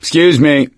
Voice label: sorry, survivor voice; source engine; left 4 dead 2; gambler

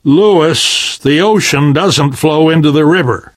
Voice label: harbor blocked, survivor voice; Left 4 Dead 2; Source Engine; video games; namvet

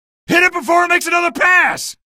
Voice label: alert gunship, male; video games; npc; source engine; episodic; episode 1

